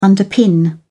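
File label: "underpin", English, British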